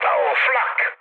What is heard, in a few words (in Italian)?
damaged aa, mood low, speech it; War Thunder